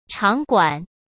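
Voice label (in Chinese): "场馆", Mandarin Chinese; hsk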